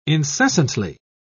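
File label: "incessantly", British English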